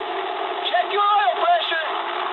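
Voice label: damaged oil mood low, speech English; War Thunder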